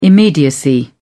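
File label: "immediacy", British English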